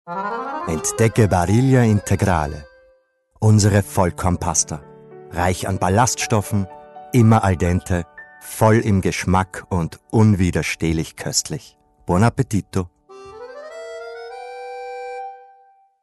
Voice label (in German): WERBUNG; BARILLIA